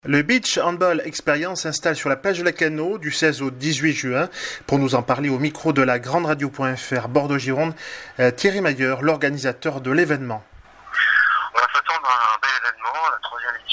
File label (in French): INTERVIEW; Lacanau beach handball Xperience; la grande radio